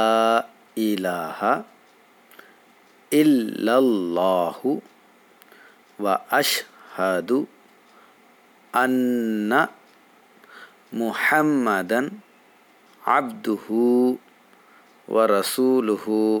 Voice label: Shahada in arabic with English translation